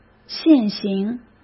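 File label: "现行", Mandarin Chinese; chinese flashcards; hsk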